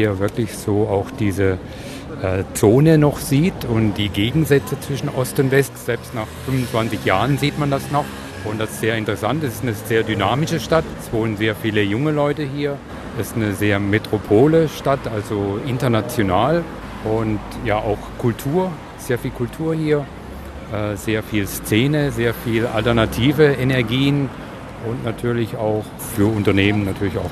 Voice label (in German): UMFRAGE Berlin gefällt ohne frage